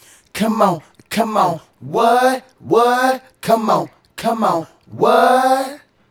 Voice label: Male Vocal; Vocals; Sonic Foundry (Sony Creative Software); Mac Money